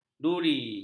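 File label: "duri", Malay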